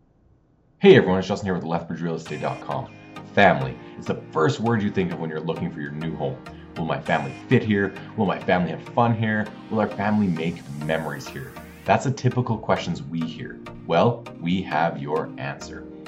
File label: Voice Over; 383 Church Ave